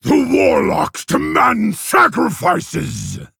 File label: Male Orc